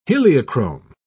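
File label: "heliochrome", British English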